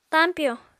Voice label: "tañpyo", apatani

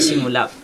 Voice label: "tree leaf", Chug

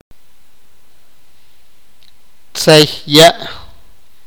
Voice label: mar, sipakapense

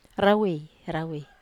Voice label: "rawe", Wamesa

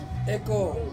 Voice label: "ko", to bring, Ansus

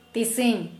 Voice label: Kera Mundari F today

